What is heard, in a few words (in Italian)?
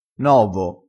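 "novo", dialettale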